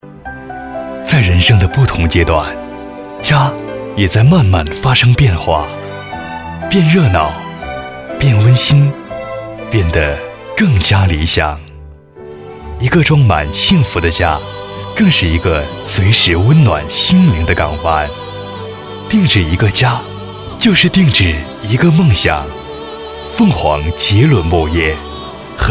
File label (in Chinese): 男32 木业; 高端广告; 凤凰木业